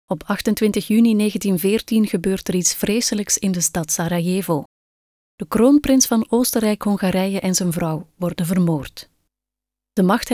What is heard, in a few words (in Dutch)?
voice over docu; de Grote Oorlog